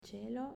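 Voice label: "Cielo", Italian, female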